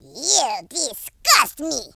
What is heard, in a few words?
"traitor", French; speech